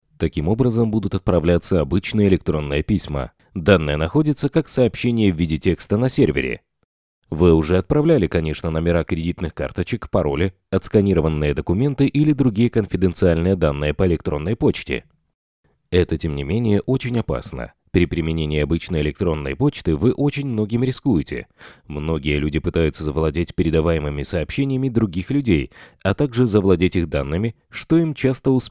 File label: rus